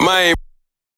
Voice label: maine vox; FL Studio; slayerx drumkit